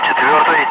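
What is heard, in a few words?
Russian bright; Ka-50; Wingman; DCS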